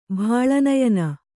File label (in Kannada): "ಬಾಳನಯನ", Kannada